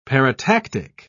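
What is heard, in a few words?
"paratactic", British English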